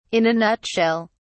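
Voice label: "in a nutshell", British English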